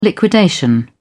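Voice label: "liquidation", British English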